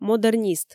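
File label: "модернист", Komi, female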